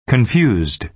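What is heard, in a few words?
"confused", American English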